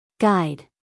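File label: "guide", American English, female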